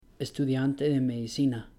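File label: "estudiante de medicina", Spanish; pronouncing c g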